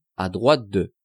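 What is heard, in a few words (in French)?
"à droite de", French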